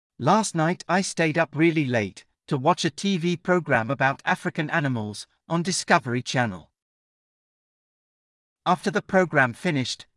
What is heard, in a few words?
uk a strange dream